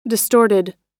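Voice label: "distorted", American English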